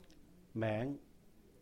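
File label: "名", Cantonese; name; méng; meng2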